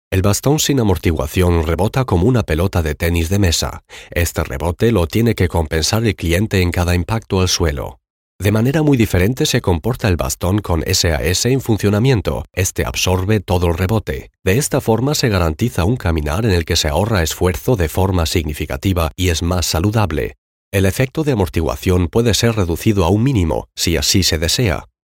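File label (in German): Industriefilm; Leki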